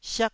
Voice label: "siak1", taiwanese Syllables